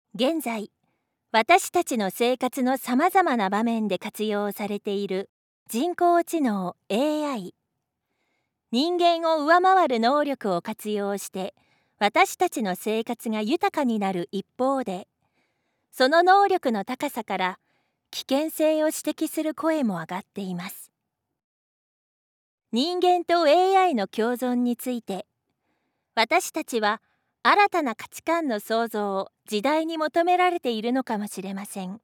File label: foreigner